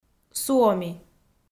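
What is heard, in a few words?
"суоми", Komi, female; kpv; audio dict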